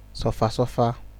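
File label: "suffer suffer", Nigerian Pidgin, male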